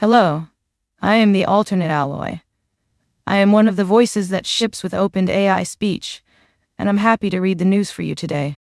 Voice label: news, speech; dtp-newsroom; alloy alt